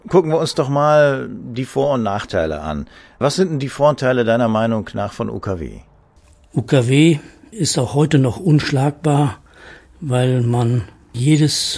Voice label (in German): interview; radio guide; 30 jahre